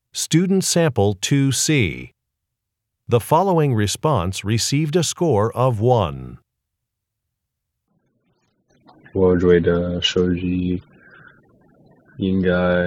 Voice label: chinese conversation